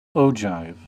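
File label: "ogive", American English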